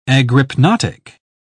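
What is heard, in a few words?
"agrypnotic", British English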